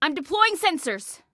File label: Self Task Deploy Sensors, Female; Female 02